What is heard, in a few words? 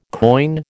"coin", American